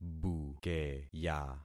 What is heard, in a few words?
"bukeya", concat syllables; Affixing experiment